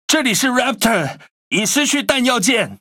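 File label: Chinese (Taiwan) CHAT AMMUNITION; PVE; World Of Warships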